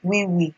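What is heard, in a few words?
"weewe", Nigerian Pidgin, female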